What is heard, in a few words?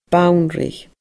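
"banrigh", Scottish Gaelic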